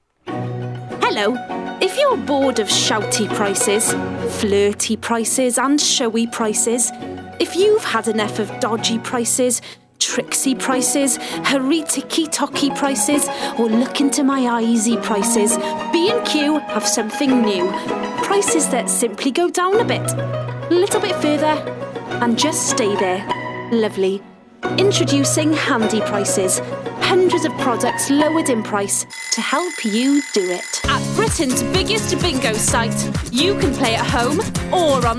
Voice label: female English Welsh English Welsh and RP accents commercial compilation; devine voices